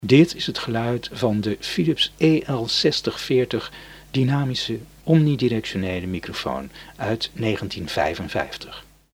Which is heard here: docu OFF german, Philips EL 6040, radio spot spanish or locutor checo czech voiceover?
Philips EL 6040